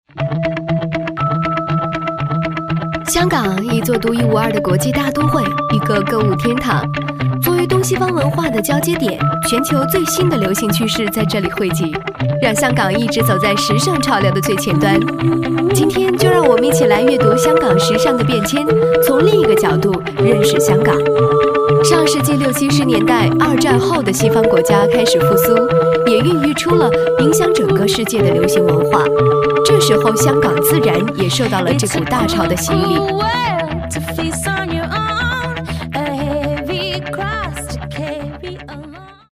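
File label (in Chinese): 女-专题配音（科技）; 香港; 甜美亲切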